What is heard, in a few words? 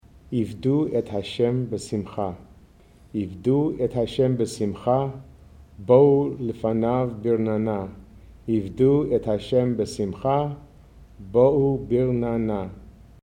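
Ivdu Hashem B'simchah, male; Shabbat Evening Readings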